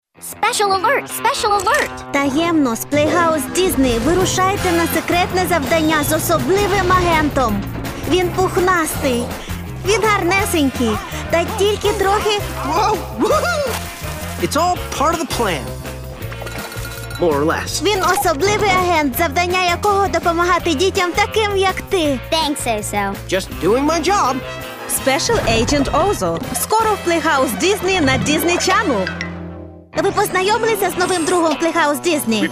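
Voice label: ukrainian promo; disney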